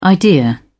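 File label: "idea", British English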